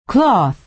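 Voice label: "cloth", American English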